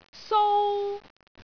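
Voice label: "sou1", Cantonese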